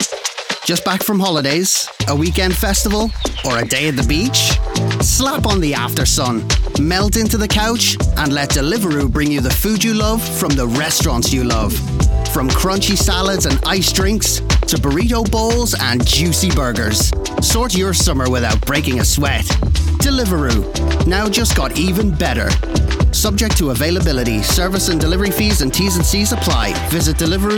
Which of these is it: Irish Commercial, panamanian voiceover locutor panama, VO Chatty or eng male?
Irish Commercial